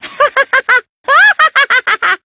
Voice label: English Line; Danganronpa Trigger Happy Havoc; Monokuma

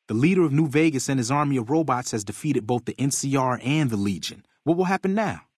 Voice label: male adult dialogue greeting; Fnv

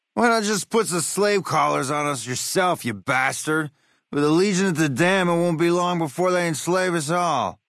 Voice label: male adult greeting

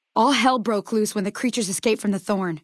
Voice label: female adult default dialogue greeting; Fnv

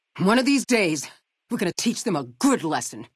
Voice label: female adult greeting; FNV

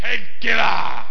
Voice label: Warrior Voice

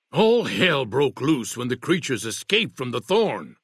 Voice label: male old greeting; Fnv

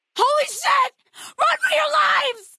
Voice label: female adult default dialogue greeting; Fnv

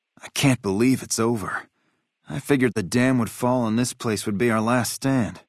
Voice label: male adult greeting

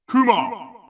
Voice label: Unused Announcer; P4A; Kuma Alt

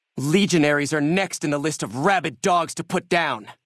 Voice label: maleadult default greeting; Fnv

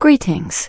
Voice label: early human female shopping

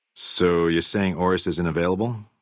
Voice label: male adult gambler asks; FNV

